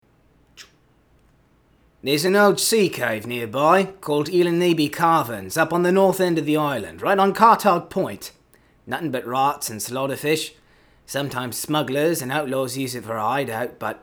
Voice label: breton, voice auditions; skywind